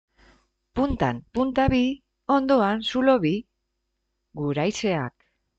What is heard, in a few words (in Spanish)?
Adivinanza euskera; Guraizeak